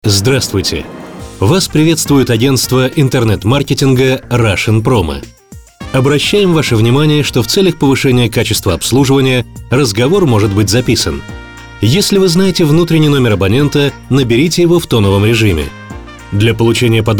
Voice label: golosovoe privetstvie ivr; Avtootvetchik